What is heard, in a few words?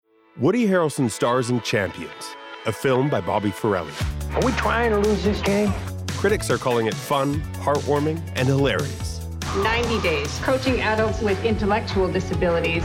Trailer; Champions